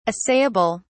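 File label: "assayable", British English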